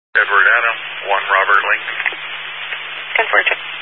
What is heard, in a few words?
Highway Patrol, Phoenix Metro West Area, scanner